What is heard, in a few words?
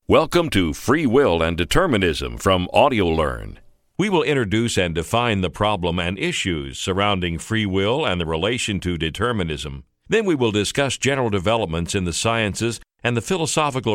e-learning